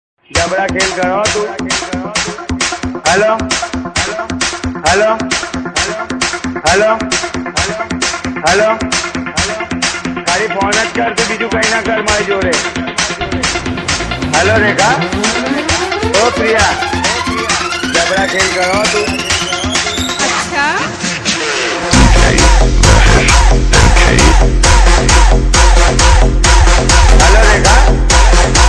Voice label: viral call recording ringtone gujarati ringtone

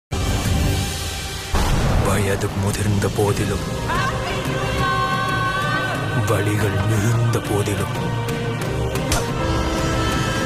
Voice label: awesome dialogue emotional; star movie bgm ringtone; kavin; kavin reentry

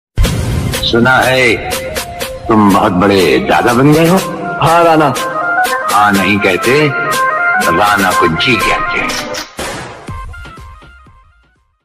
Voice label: dialogue ringtone hindi; rajkumar

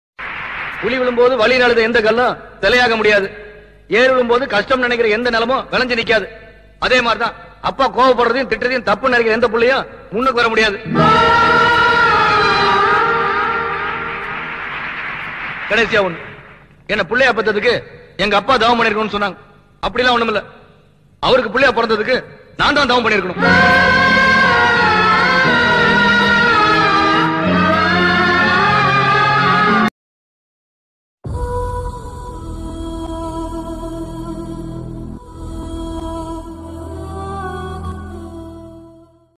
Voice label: dad son relationship dialogue; suryavamsam

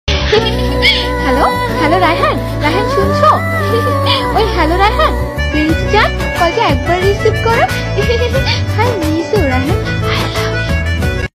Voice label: name ringtone, bangla ringtone

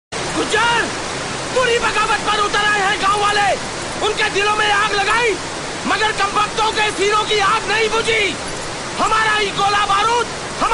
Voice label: gujjar attitude dialogue ringtone; mela film

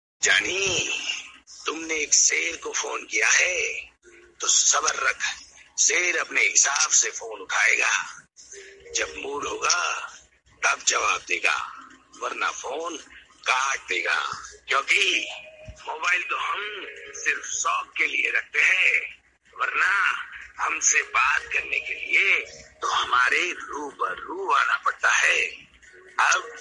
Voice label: voice call ringtone; rajkumar voice

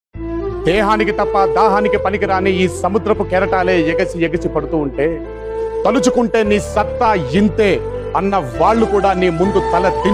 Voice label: motivational speech in telugu; ntr

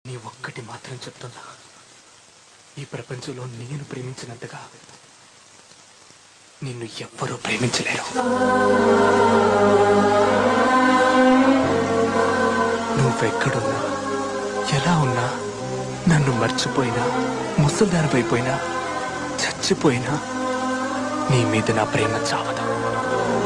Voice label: emotional dialogue; vaana movie

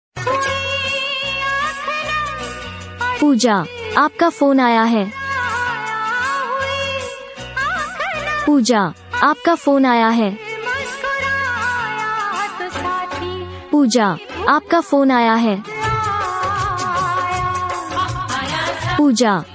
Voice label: name ringtone, hindi; Pooja

